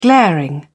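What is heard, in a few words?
"glaring", British English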